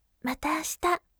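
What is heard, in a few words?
voice mata ashita gentle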